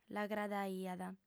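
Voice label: "l'agradaiat", female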